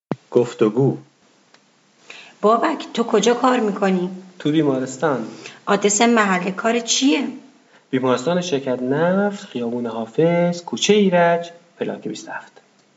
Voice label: Dialog, persian